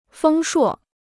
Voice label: "丰硕", Chinese